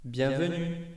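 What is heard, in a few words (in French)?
"bienvenue", French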